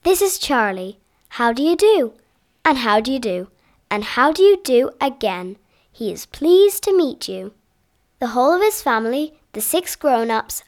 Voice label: Reading

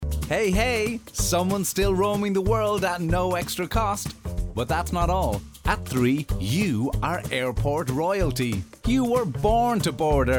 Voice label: Commerical

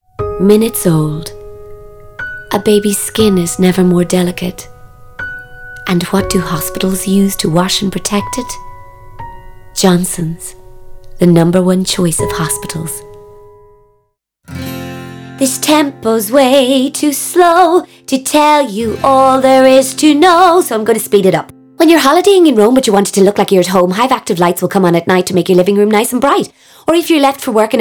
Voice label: Voice Over

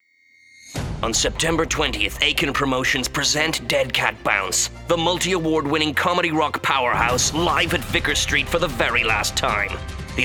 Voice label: Radio Ad; Dead Cat Bounce

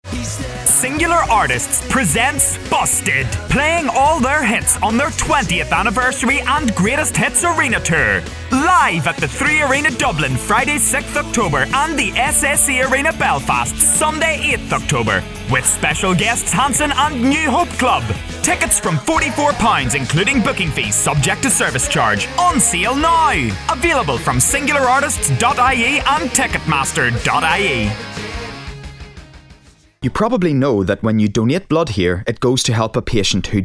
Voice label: Commercial

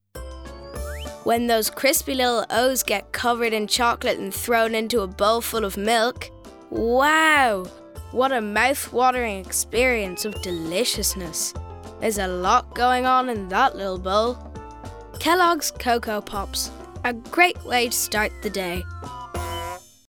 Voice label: Commercial

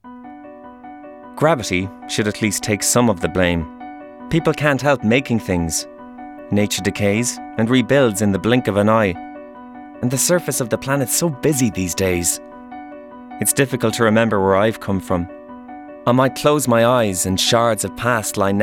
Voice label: NARRATION

